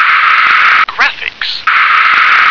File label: "graphics", English